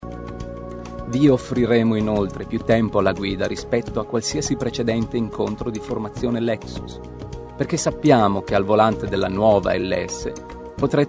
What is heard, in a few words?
Voiceover, italian actor; Lexus; Citylit - London